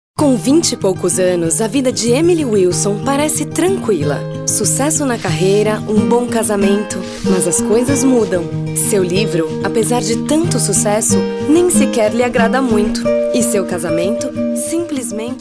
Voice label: Booktrailer